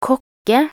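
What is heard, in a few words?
"kokke", Norwegian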